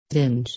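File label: "tinge", English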